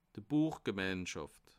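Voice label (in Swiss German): "buechgemeinschaft", Bas Rhin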